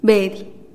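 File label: "bedih", Teotitlán del Valle Zapotec; chicken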